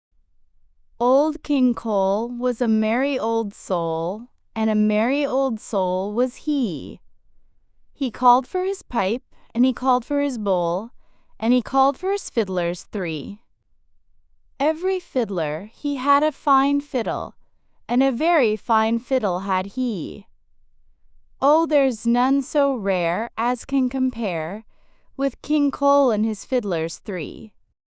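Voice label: female US, classic rhymes; Old King Cole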